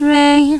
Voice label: Solfege, Claire's Voice; Opcode Systems

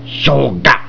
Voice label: "eight", talking clock; Klingon BG App

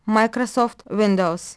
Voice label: russian; icons

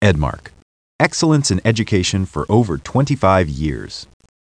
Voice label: NARRATOR; Stanley's Sticker Stories (1997)(Edmark)

